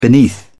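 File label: "beneath", English, British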